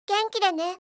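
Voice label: "genkidene", Japanese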